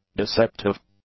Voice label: "deceptive", english; adjectives